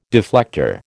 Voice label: "deflector", American

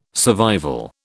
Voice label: "survival", British

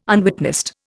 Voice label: "unwitnessed", Indian

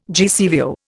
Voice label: "GCVO", Indian

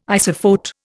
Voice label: "isophote", Indian

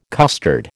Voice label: "custard", American

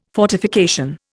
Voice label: "fortification", Indian

